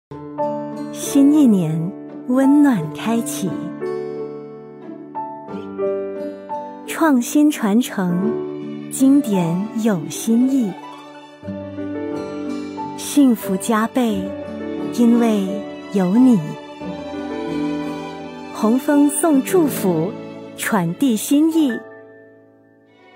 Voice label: Mandarin Announcer, Radio ads, Style: Friendly, Engaging & Fast pace